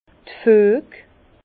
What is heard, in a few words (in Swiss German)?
"fueg", Bas Rhin; vin; jointage